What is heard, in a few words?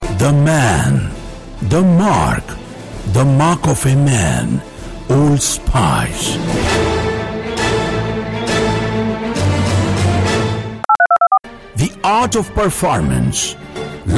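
commercials, English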